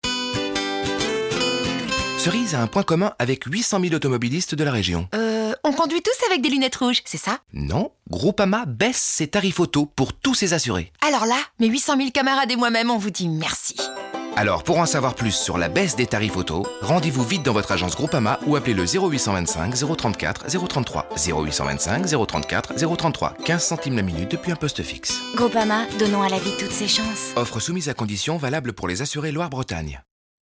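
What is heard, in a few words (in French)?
rigolote, comédienne voix off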